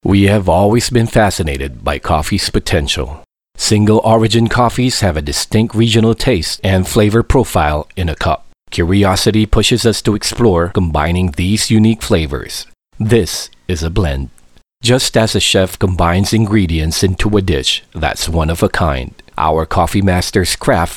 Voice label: Home Recording Sample (English)